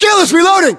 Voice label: US inform reloading, battlechatter, english